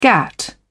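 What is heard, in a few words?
"gatt", British English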